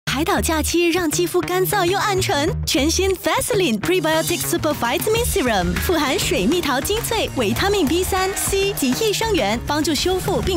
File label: Mandarin Announcer, Style; Mid tone, Confident; Pantene